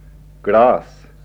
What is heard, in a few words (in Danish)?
norsk glas, trøndersk; [gɺɑ:s]